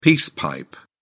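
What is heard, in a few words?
"peace pipe", British English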